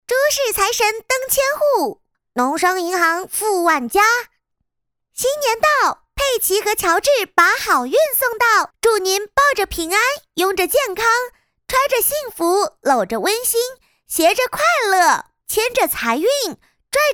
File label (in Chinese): 童声 广告; 佩奇乔治